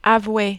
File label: "avouer", French